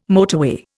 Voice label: "motorway", Indian

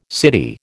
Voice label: "city", American

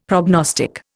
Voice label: "prognostic", Indian; Dictionary

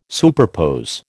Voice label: "superpose", American

